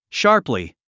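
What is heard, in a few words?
"sharply", English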